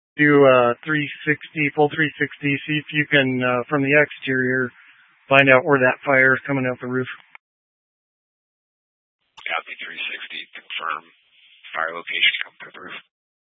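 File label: Incident Tactical, scanner audio recording; Incident Tac 1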